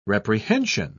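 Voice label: "reprehension", British English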